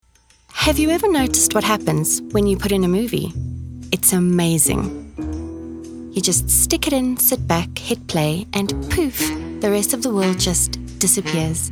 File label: english south african others